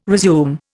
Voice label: "resume", Indian